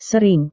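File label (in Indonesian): "sering", Indonesian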